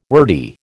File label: "wordy", American